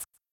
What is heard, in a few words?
buncha books, girls; cheerReaders; LetsGoRead